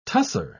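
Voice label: "tussah", English, British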